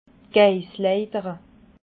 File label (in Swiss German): "geissleitere", alsace